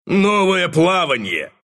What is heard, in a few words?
spawn, ru